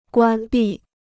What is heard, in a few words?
"关闭", Chinese